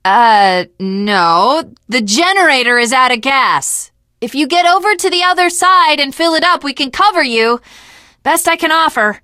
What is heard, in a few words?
initial meeting, teen girl